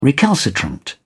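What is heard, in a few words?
"recalcitrant", British English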